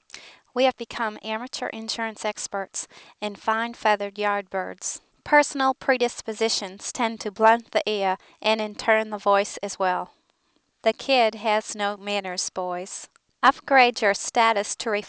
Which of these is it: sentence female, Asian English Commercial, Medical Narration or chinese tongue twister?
sentence female